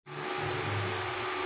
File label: Voice Note